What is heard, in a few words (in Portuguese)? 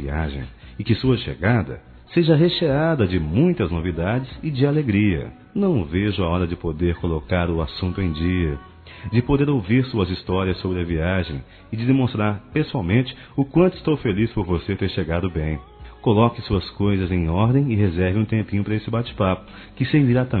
BOAS VINDAS-M; Telemensagens 2017